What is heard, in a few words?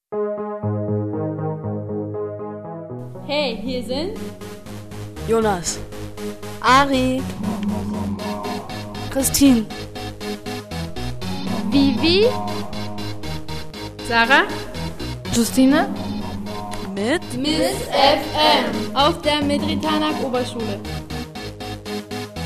jingle; missfm